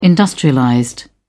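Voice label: "industrialized", British English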